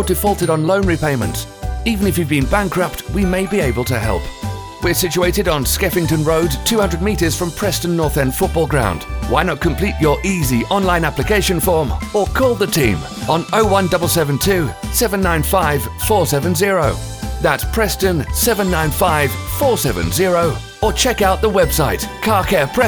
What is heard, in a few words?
car care radio ad